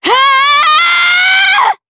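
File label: Vox Casting, Japanese; DarkFrey; kingsraid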